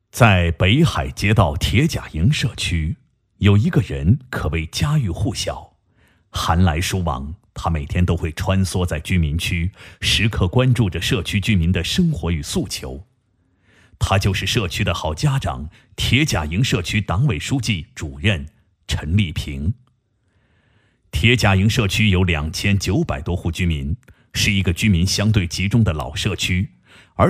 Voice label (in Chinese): 男, 专题, 人物类, 社区的, 好家长